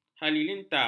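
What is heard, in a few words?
"halilintar", Malay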